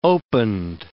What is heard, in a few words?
"opened", English